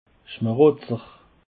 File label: "schmarutzer", Alsace